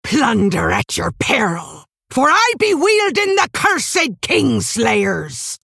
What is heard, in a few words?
female orc pirate day